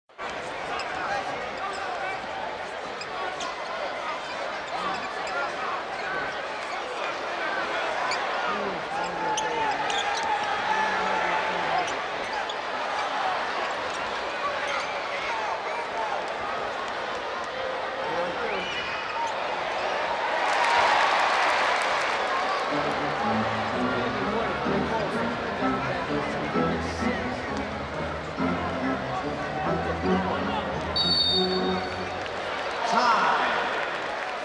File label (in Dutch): Basketbal Publiek Aankondiging; KMP Film Video Sound Effects